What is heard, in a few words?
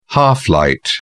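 "half light", British English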